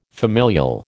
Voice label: "familial", American